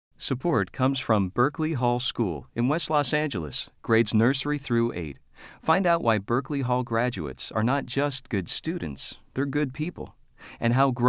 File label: spot, announcer; Berkeley Hall School